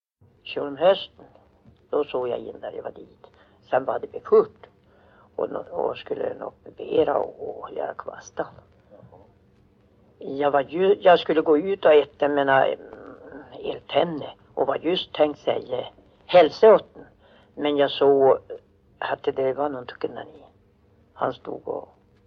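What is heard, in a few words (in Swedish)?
Ragunda Jämtland, dialekter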